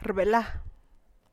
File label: nickname rename, San Lucas Quiaviní Zapotec; rbela; apodar, renombrar